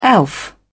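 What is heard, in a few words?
"elf", British English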